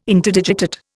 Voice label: "Interdigitate", Indian

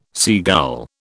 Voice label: "seagull", British

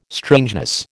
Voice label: "strangeness", American

